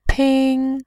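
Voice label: "pin", Mandarin, tone 1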